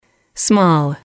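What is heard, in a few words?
"small", English, female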